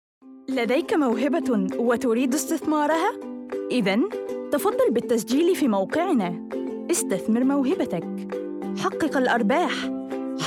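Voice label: Arabic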